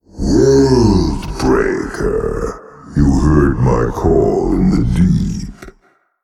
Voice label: Male Old God